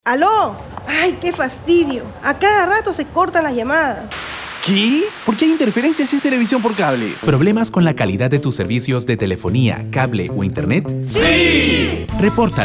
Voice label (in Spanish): spot radial; calidad del servicio